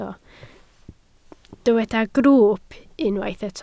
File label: "grwwp", Welsh